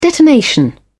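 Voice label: "detonation", British English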